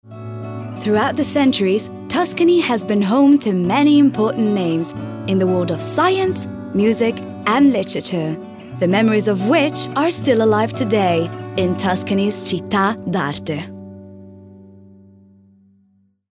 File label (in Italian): Documentario